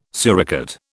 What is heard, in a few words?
"suricate", British